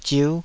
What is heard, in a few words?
"ziu5", taiwanese